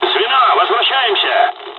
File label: dialogs russian; War Thunder